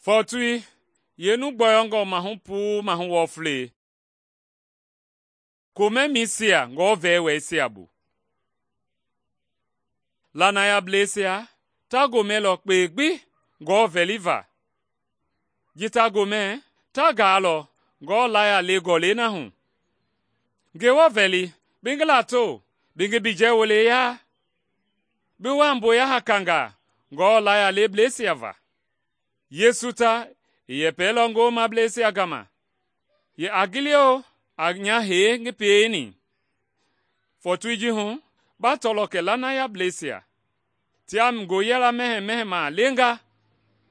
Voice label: mende bsl nt drama; good news mende kpa

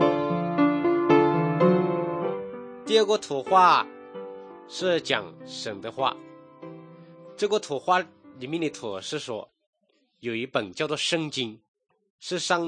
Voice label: good news shan, drama

